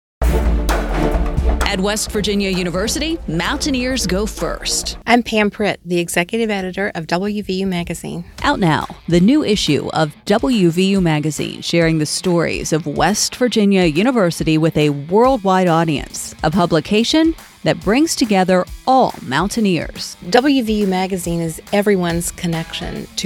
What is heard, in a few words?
radio spot; wvu magazine